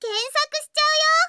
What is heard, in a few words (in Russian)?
zvuki s golosom iaponskoi anime devushki